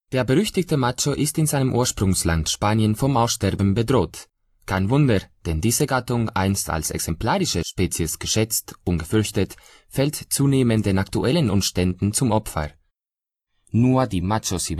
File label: deutsch male akzente; bayerischer rundfunk beitrag